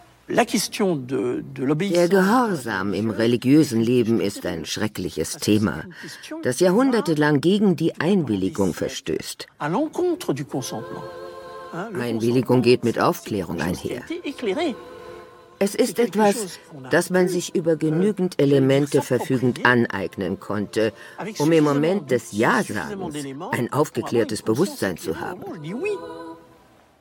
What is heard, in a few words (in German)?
Voice Over, frauen; Arte; Die Nonne